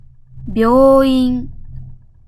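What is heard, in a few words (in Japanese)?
"病院", Japanese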